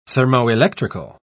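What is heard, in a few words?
"thermoelectrical", British English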